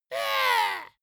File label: dwarf disgusted